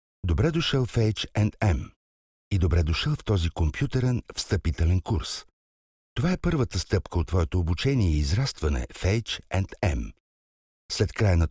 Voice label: bulgarian m e-learning, voice; voice demos

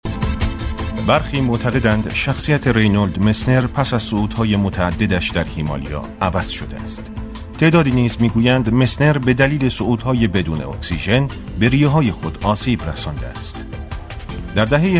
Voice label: farsi m narration; voice demos

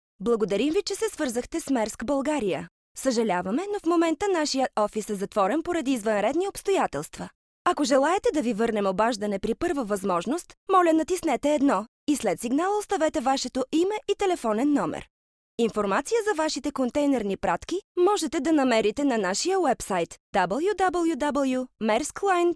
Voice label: bulgarian f ivr; voice demos

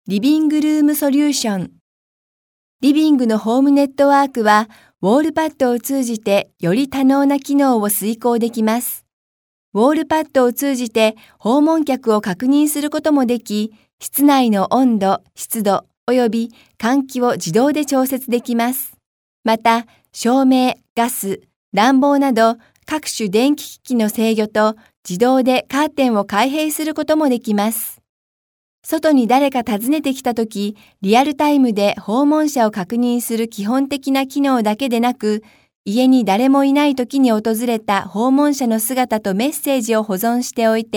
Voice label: japanese f narration; voice demos

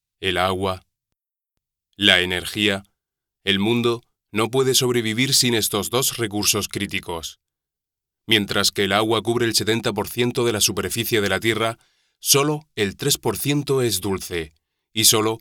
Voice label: spanish m narration; voice demos

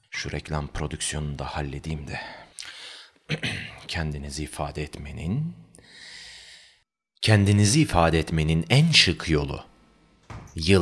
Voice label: turkish m character; voice demos

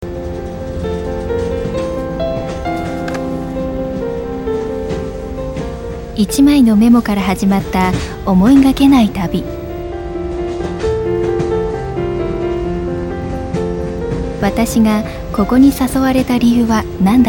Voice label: japanese f commercial; voice demos

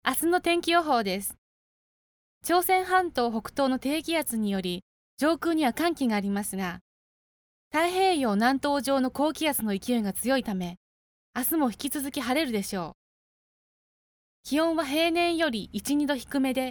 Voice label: japanese f narration; voice demos

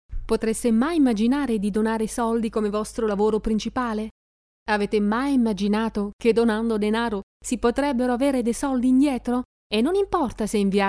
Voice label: italian female narration; voice demos

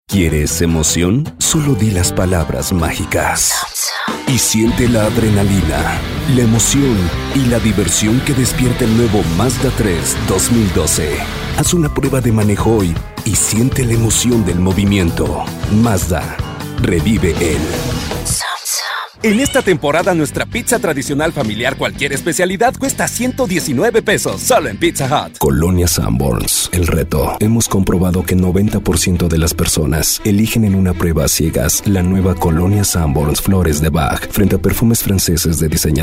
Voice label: latam mexican m commercial; voice demos

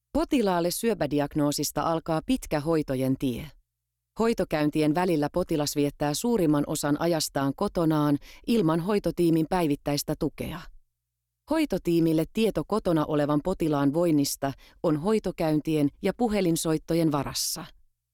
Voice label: finnish f narration; voice talents

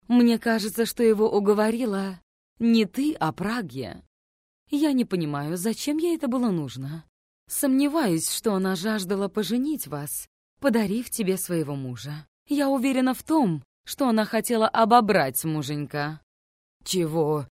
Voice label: russian f character; voice demos

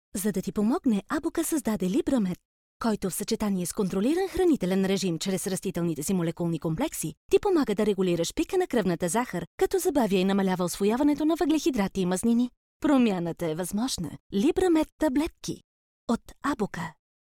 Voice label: bulgarian f commercial, voice; voice demos

